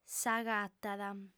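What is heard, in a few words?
"s'agatat", Sardinian female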